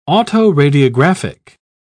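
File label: "autoradiographic", English, British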